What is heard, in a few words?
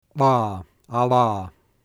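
voiced labialdental flap; IPA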